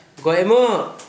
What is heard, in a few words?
"gojʔ-moʔ", Gta, Dialect: Hill; wash someone's face (vb.)